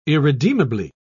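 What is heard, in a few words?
"irredeemably", British English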